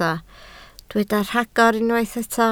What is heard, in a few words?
"rhagor", Welsh